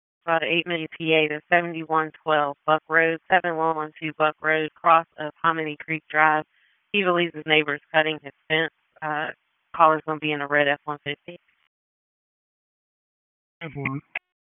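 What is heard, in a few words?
Dispatch, p25; WSO DISP 1; wake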